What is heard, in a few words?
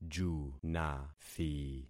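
"junathi", concatenated syllables; Affixing experiment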